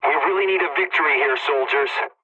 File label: pilot mission start, english; War Thunder